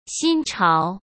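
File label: "新潮", Mandarin Chinese; hsk; chinese-flashcards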